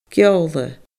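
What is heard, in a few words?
"geola", Scottish Gaelic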